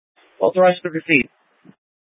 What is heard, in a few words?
Light Rail Emergency, scanner